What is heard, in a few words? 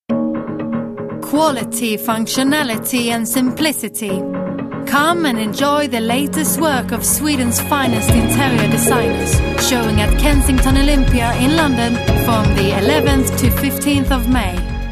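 Swedish English; Scandinavian, Swedish, Male, Studio, 30s-40s. Based in Sweden.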